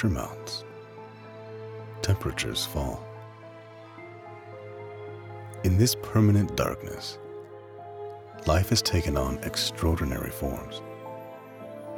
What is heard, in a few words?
Ocean Documentary